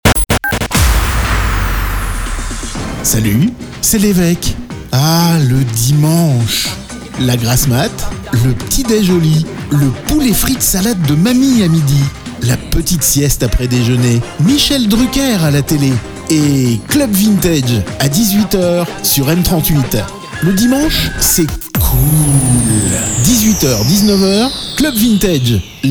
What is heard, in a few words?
PROMO, RADIO; M38 radio; PROMO CLUB VINTAGE 2025